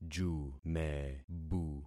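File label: "jumebu", concatenated syllables; Affixing experiment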